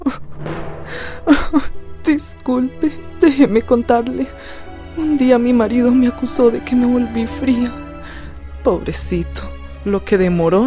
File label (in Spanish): spot publicitario